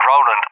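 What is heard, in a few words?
"Roland", English; UK; AirDefence; DCS; Allied Flight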